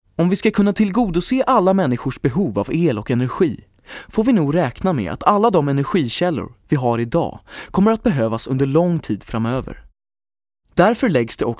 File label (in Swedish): svensk man